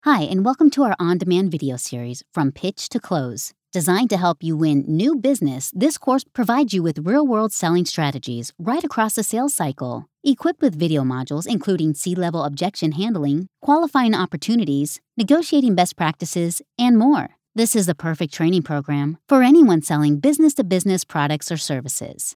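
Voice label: English US, female, elearning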